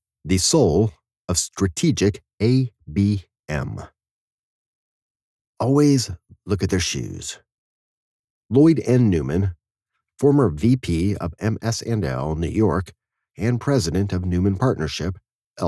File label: Non-Fiction, 1st Person, Authentic Conversational